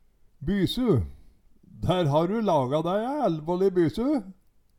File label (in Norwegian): "bysu", Numedalsmål; Ei stor mengde mat, gjerne sammensett av fleire slag.